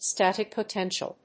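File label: "static potential", English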